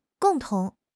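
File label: "gong4 tong2", Mandarin Chinese; HSK4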